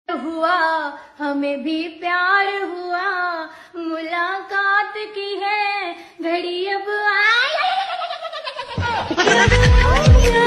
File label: comedy